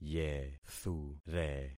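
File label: "yethure", concatenated syllables; Affixing experiment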